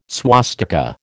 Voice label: "swastika", American